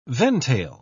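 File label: "ventail", English word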